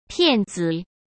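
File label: "骗子", Mandarin Chinese; hsk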